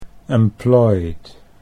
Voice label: "employed", Dutch English